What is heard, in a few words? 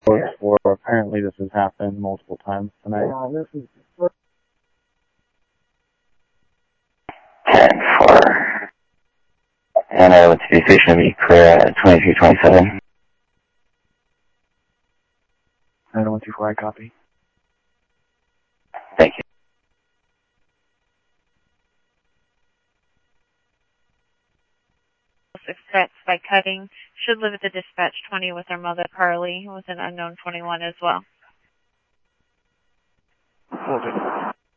South Scottsdale Sheriff, scanner audio